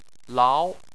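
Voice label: "lao", Chinese, second tone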